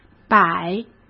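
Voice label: "百", Mandarin Chinese; hsk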